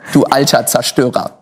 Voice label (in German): "zerstoerer", German